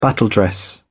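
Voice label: "battledress", British English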